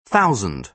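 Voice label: "thousand", British English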